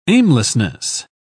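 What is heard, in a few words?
"aimlessness", British English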